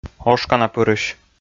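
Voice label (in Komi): "ошканапырысь", Komi, male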